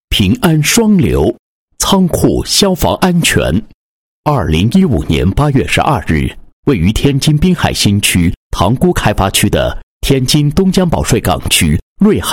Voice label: 男 消防 宣传, 配音; 双流仓储消防宣传

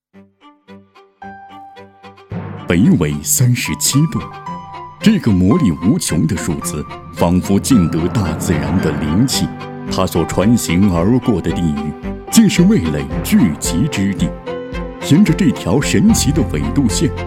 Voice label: 时尚解说; 供应链

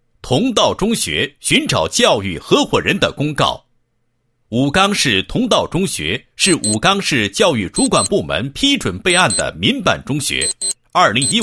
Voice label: 男 学校公告; 同道中学寻找教育合伙人的公告

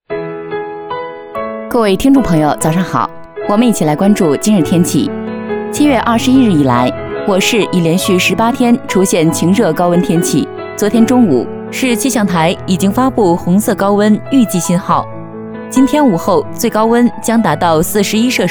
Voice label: 女 新闻类天气预报

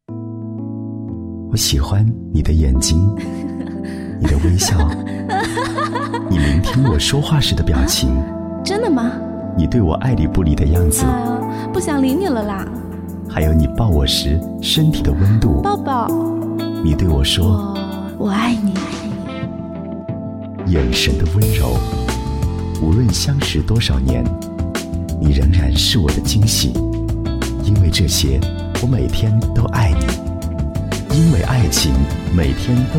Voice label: 男, 电台情人节宣传

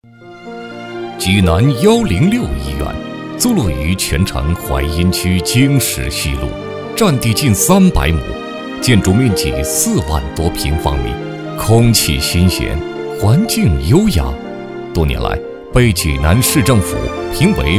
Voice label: 男100号-专题-医院 舒缓 大气, 国语男声配音; 济南106医院; 央视老师

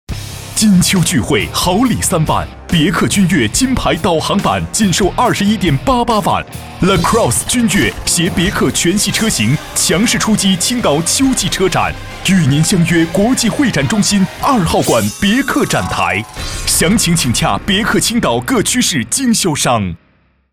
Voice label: 男 广告, 国语男声配音 大气浑厚; 别克

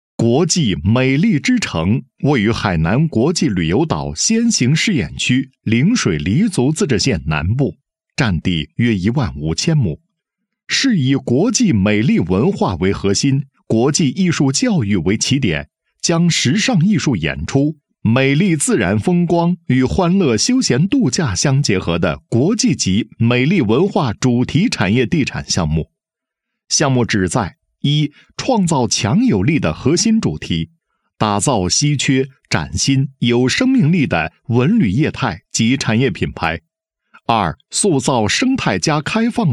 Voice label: 男 房地产 概念性规划设计配音; 碧桂园·国际“美丽之城”

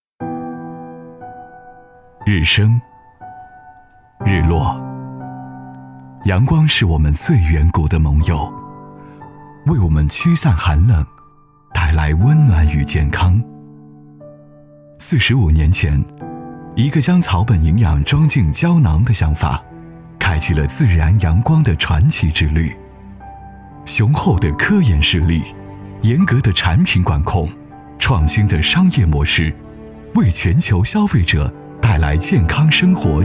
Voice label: 男 专题 自然阳光 专题 舒缓, 国语男声配音 磁性; 磁性录音

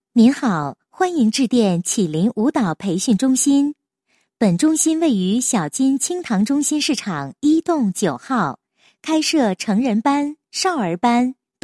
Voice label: 女 彩铃, 国语女声配音; 绮林舞蹈培训中心

